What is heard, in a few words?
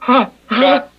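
"huh huh", vocal sample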